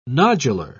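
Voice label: "nodular", British English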